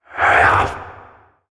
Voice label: Vocal Attack; Enemies; Warframe; PriestQuest; Possessed Red Veil